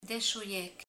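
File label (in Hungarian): "tizenegy", Hungarian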